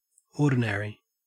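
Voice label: "ordinary", Australian English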